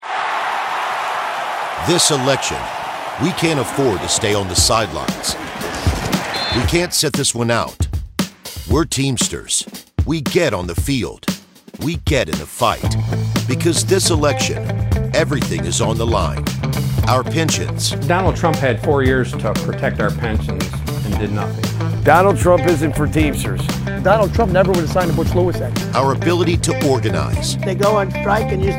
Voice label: Conversational male voice, Television Spots, Professional Television Spot Voice; Political; Teamsters Against Trump